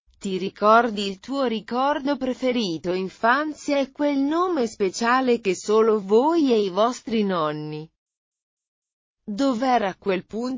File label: Italian